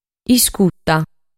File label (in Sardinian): "Iscuta", sardu